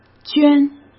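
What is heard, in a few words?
"捐", Mandarin Chinese; hsk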